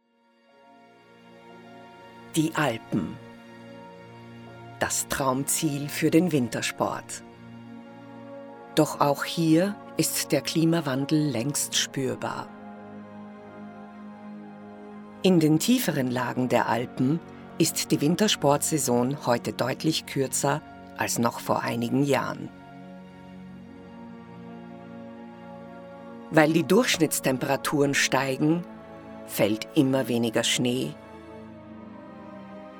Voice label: german austrian documentaries

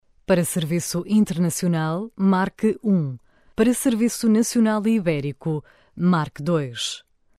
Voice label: portuguese ivr

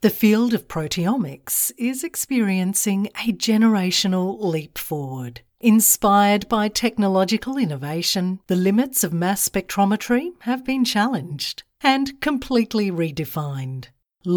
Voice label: english australian others

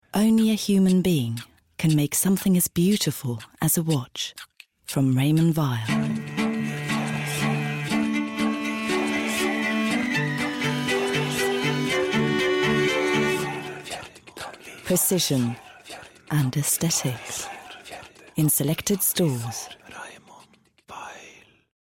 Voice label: english commercial

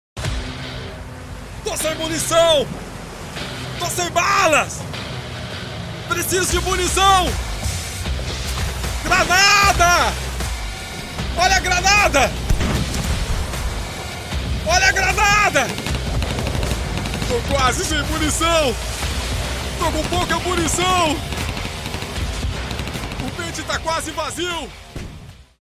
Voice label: portuguese brazilian video games

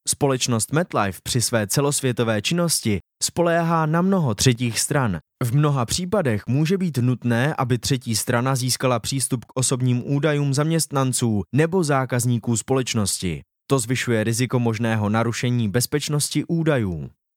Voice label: czech promos

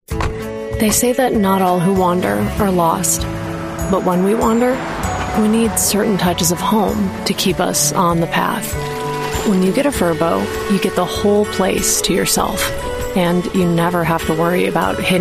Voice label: english american commercial; English (Australian) English (American); United States

